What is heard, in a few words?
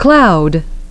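"cloud", English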